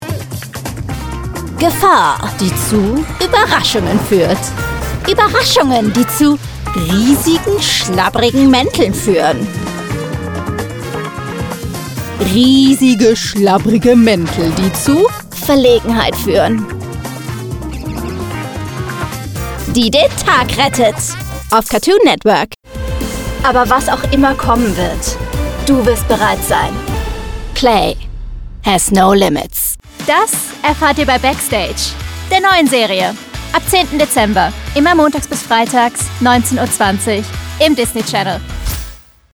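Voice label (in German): Promo Trailer DE, voiceover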